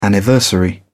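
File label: "anniversary", British English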